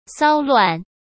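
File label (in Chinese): "骚乱", Mandarin Chinese; hsk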